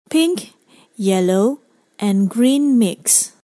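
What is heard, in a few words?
"pink", English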